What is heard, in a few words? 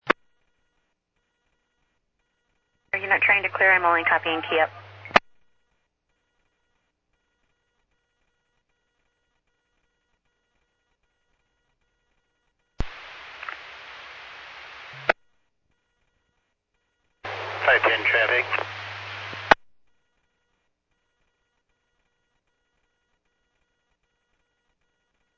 Medevac, scanner; NativeAirAmb